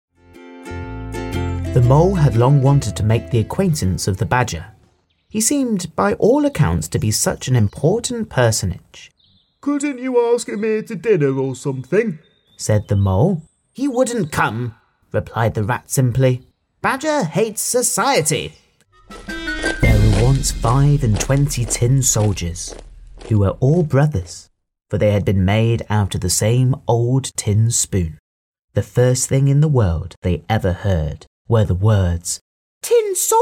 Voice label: English UK Narration

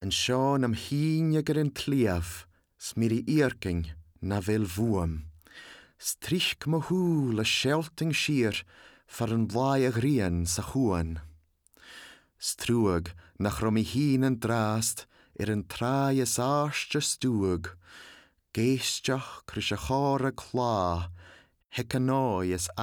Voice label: Scottish Gaelic Good Narrative

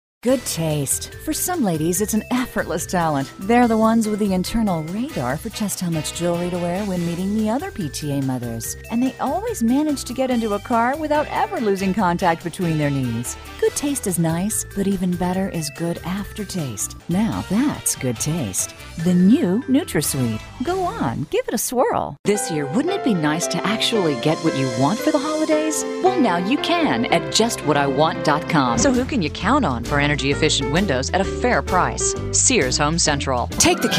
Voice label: English US Commercials

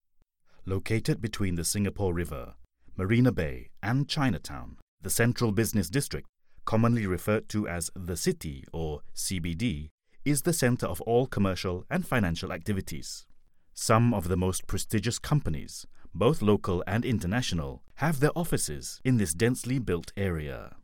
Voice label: Singaporean accented English; Golocalise

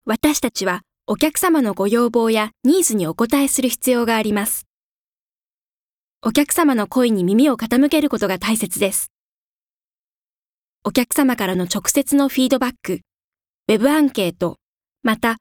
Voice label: Japanese

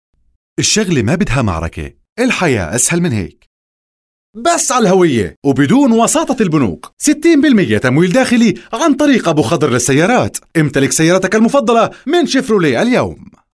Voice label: Jordan Arabic accent; GoLocalise

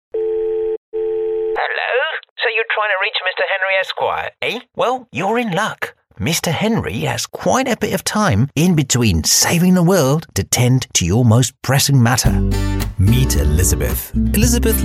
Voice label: Spanish EU Characters

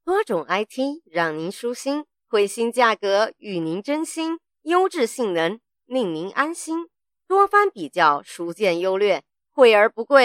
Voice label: Mandarin; GoLocalise

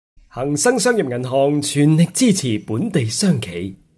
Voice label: General Cantonese; GoLocalise

